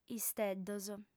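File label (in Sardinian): "isteddos", Sardinian, female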